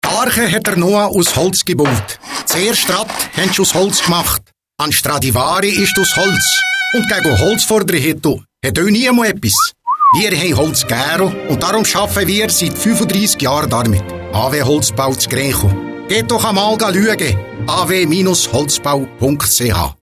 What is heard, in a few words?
radio spot; aw holzbau